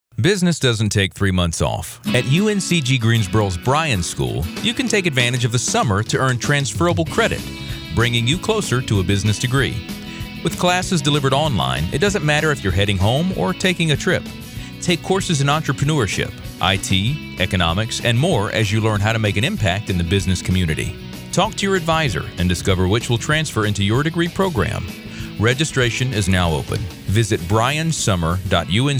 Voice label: Male; UNCG Bryan School Summer